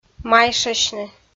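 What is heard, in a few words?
"майшасьны", Komi, female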